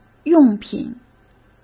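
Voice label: "用品", Mandarin Chinese; hsk; chinese-flashcards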